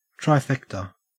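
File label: "trifecta", Australian English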